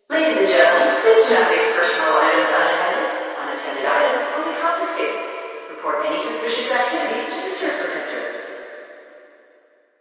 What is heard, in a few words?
announcer